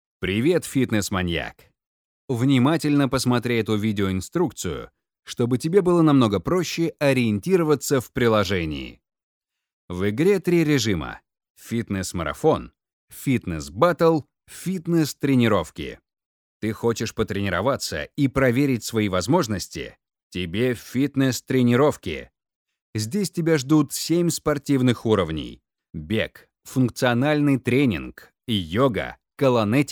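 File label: instruction Russian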